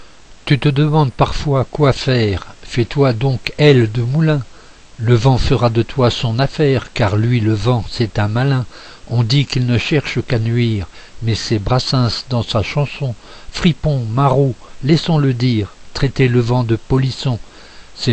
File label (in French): Voice slam; Aile du moulin